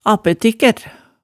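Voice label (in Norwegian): "appøtikker", Hemsedal dialect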